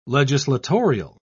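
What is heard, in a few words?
"legislatorial", British English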